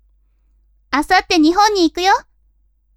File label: women